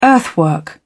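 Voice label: "earthwork", British English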